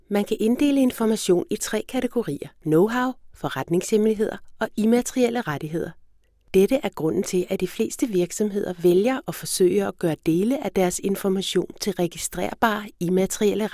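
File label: danish female narration, voice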